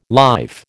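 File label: "life", American English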